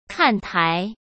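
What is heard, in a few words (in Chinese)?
"看台", Mandarin Chinese; hsk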